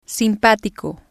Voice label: "simpatico", Spanish